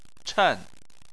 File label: "chen4", chinese